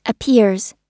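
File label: "appears", US English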